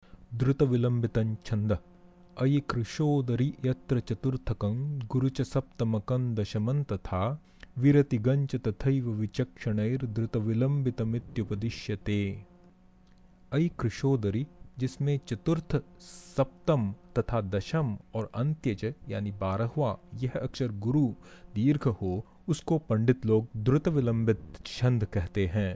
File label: drutavilambita, Sanskrit metres